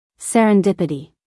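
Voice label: "serendipity", American English, female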